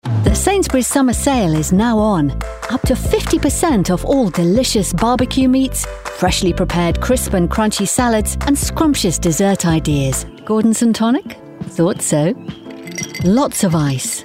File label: Commercial voice